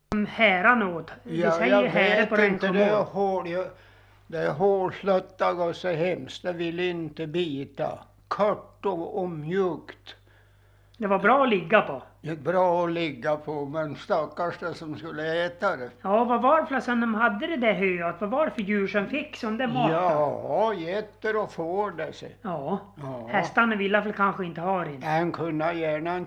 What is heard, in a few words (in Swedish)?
dialekter; Folkminnen